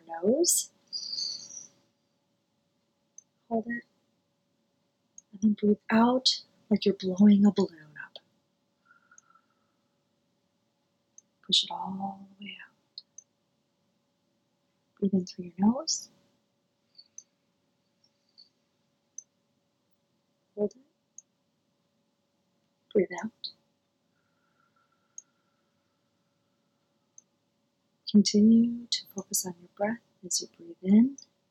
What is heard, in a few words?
Guided Meditation walk in the forest